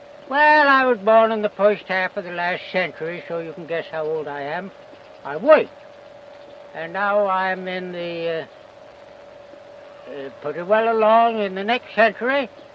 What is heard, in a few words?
Old Film Clip Untreated